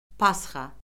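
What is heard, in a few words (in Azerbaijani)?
"pasxa", Azerbaijani; Orfoqrafiya Lüğəti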